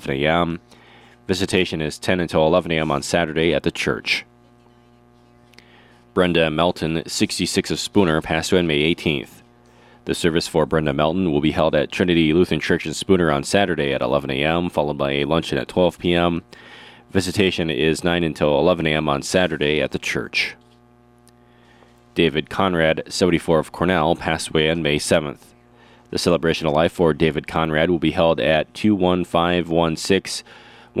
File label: Funeral Announcements